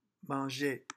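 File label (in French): "manger", French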